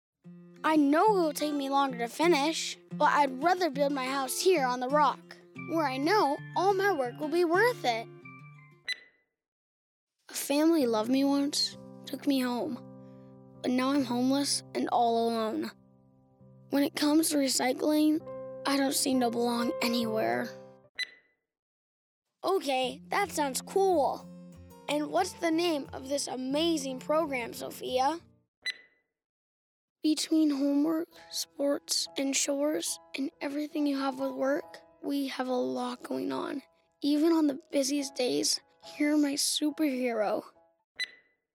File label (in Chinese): 美式男童